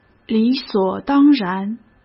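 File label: "理所当然", Mandarin Chinese; chinese-flashcards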